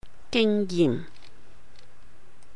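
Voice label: "gìng yihm", Cantonese; experience; 經驗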